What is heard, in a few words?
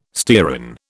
"stearin", British